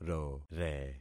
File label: "rore", concat syllables; Affixing experiment